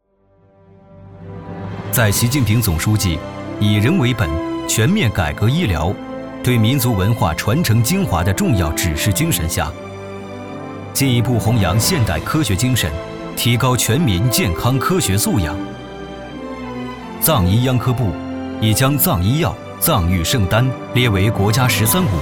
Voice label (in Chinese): 纪录片 大气沉稳